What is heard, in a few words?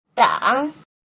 "deng", mandarin